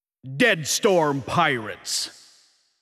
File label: Jingle Voice; Deadstorm Pirates Special Edition; Namco System 357